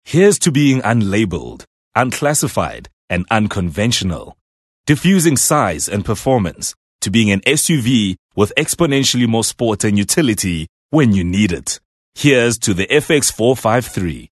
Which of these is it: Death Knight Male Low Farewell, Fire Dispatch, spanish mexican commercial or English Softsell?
English Softsell